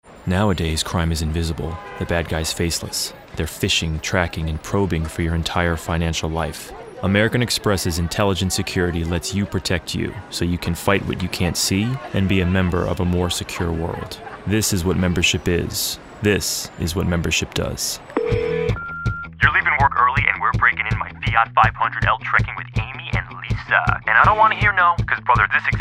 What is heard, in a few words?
commercials